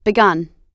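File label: "begun", American English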